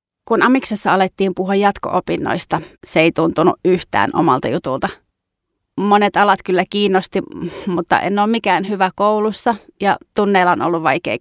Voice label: finnish female voice natural

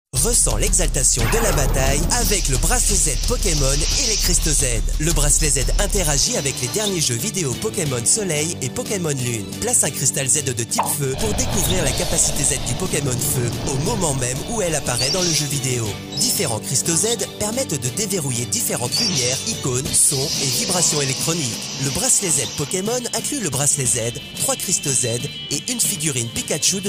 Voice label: french male toy commercial

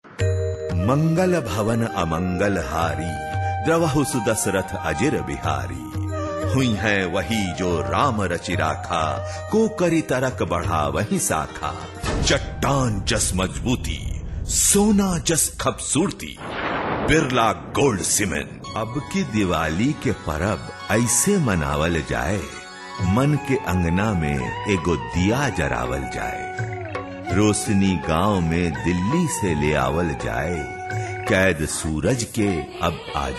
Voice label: bhojpuri

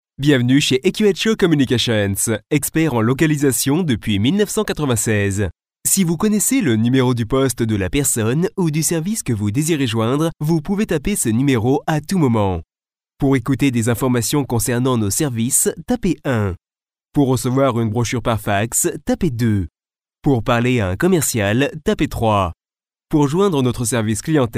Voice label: french male phone prompts

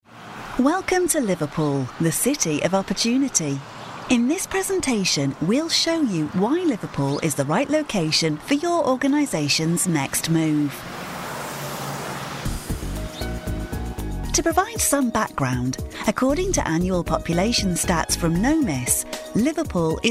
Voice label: narration

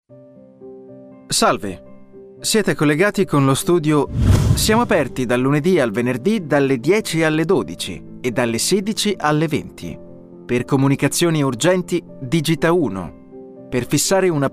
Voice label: italian male onhold

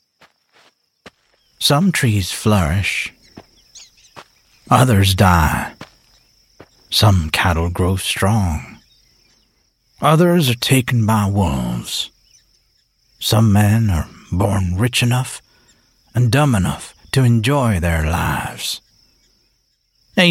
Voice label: british male voice character

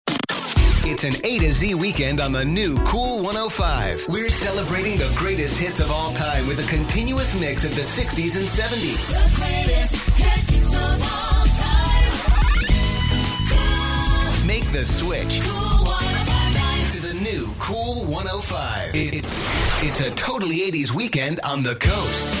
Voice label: american, radio

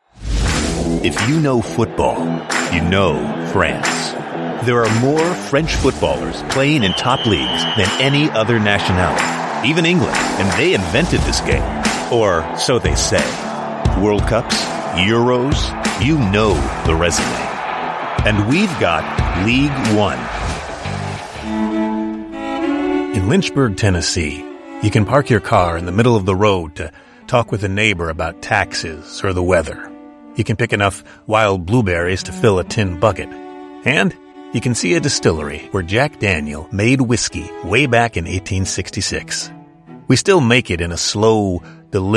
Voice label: american male voice, montage

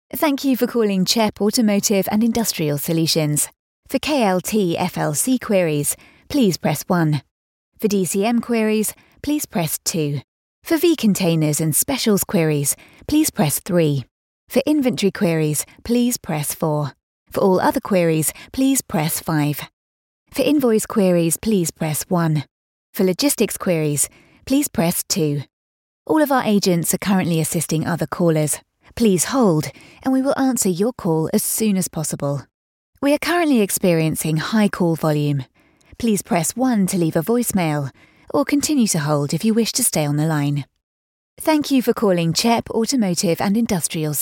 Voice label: telephone

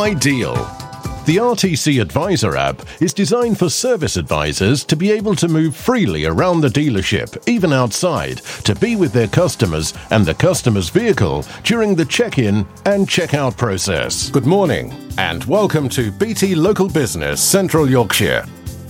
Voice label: explainer videos, narration, on hold

